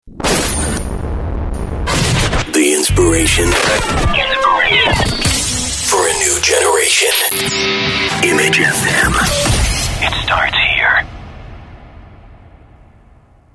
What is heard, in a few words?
sweeper; image fm